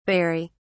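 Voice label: "barie", British English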